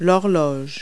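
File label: "clock wall", English words; Multi-Lingual Talking Picture Dictionary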